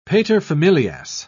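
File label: "paterfamilias", British English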